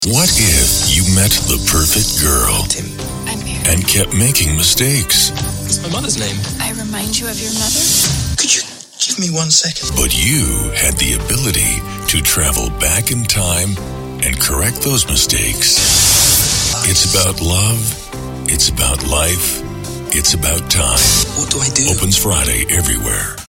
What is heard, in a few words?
Trailer, english american; General public